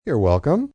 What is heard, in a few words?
Youre Welcome M, Etiquette, male; VoiceBox